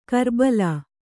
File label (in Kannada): "ಕಿರುಬಲ್ಲಿ", Kannada